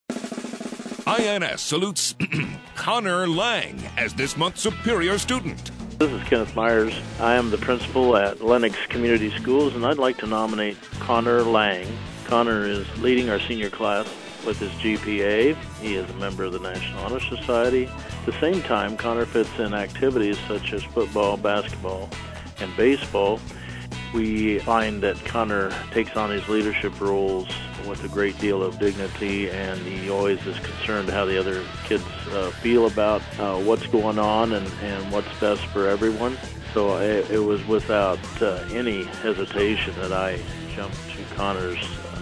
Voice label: commercial; INS Superior Student 2009